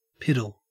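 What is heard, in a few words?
"piddle", Australian English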